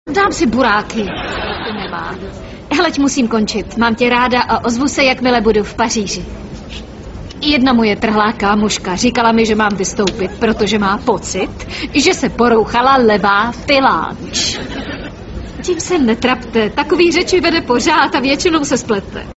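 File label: female Czech drama mix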